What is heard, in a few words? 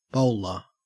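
"bowler", Australian English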